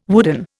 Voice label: "wooden", Indian